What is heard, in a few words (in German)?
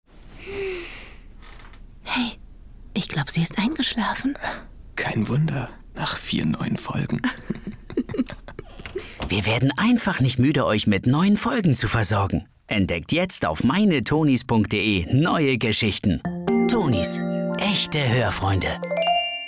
Werbung; Tonies